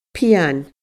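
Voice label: "pian", Scottish Gaelic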